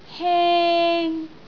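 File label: "heng1", Cantonese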